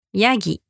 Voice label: "yagi", Japanese